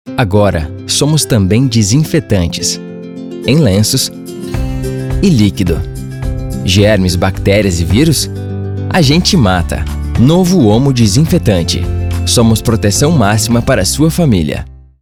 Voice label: comercial; OMO Somos Desinfetantes

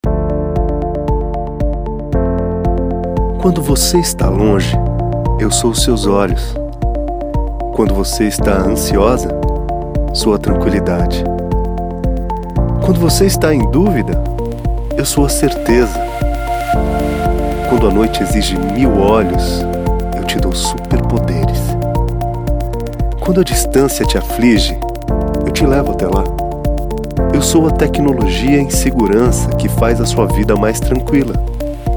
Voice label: Emocional; INTELBRAS

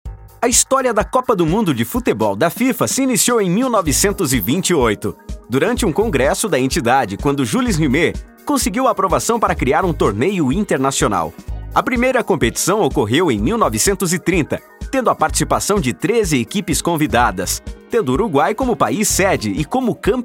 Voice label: Dinamico

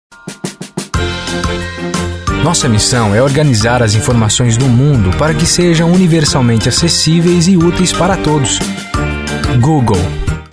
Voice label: INSTITUCIONAL

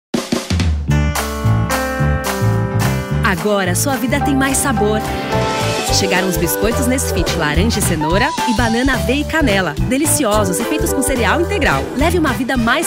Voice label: Comercial; Novos Biscoitos Nesfit

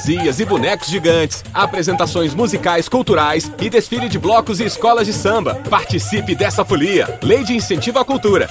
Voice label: SPOT CARNAVAL; SKOL BALNEÁRIO CAMBORIÚ

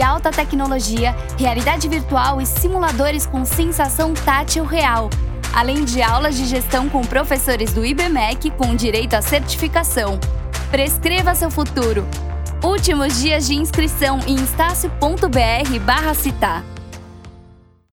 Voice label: Locucao odonto; Estacio

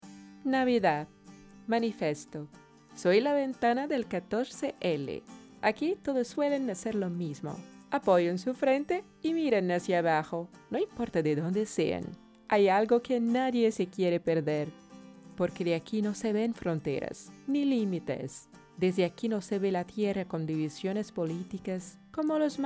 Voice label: DEMO ESPANHOL com TRILHA

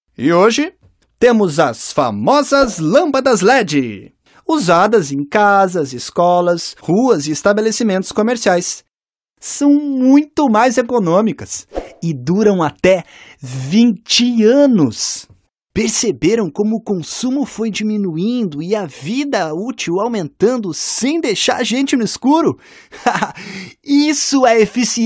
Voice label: acting eficiencia energetica

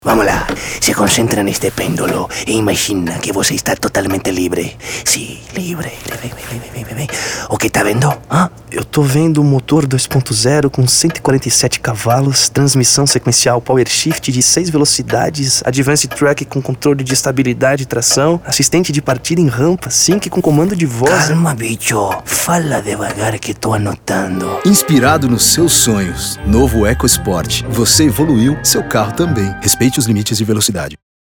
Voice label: 2 vozes Caricata Castelhano e Normal; Ford

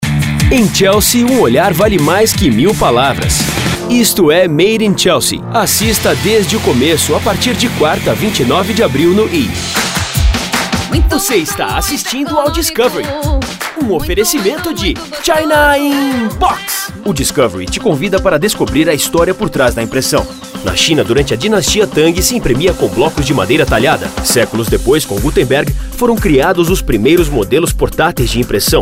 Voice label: Portugues

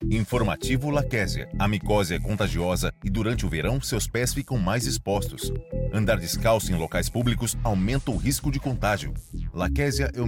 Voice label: INFORMATIVO; LAKESIA

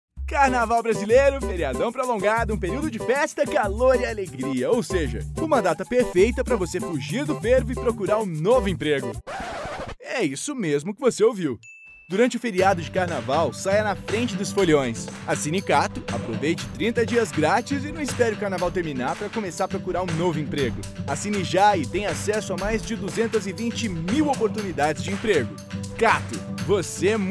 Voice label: caricato; CATHO Carnaval

